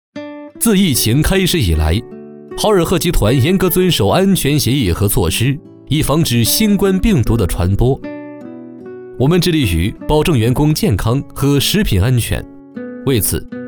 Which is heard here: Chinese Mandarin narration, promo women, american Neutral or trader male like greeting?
Chinese Mandarin narration